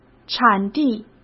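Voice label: "产地", Mandarin Chinese; chinese flashcards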